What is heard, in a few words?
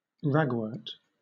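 "ragwort", British English; pronunciations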